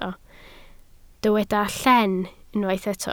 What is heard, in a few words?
"lleen", Welsh